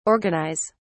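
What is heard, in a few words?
"organise", British English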